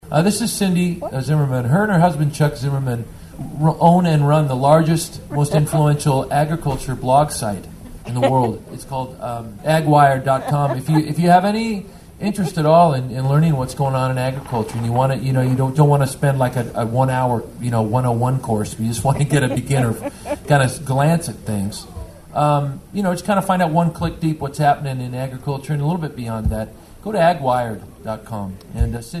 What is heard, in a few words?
commercial